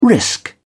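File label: "risk", British English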